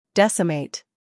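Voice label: "humor", British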